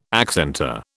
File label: "accentor", British